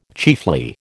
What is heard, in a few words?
"chiefly", American